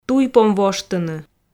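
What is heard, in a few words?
"туй пом воштыны", Komi, female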